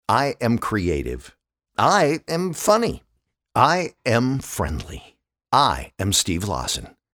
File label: men mini demo